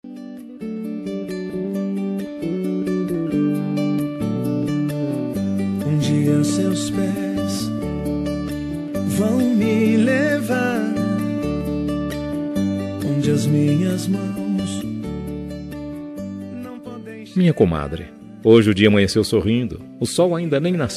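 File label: male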